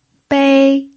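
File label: "bei", pinyin, first tone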